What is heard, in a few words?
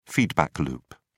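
"feedback loop", British English, dictionary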